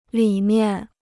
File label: "里面", Chinese